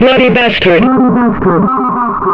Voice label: BASTERD, Funky Vocals; USB Soundscan vol.18; AKAI; COMPUTER